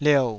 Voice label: "liou3", Taiwanese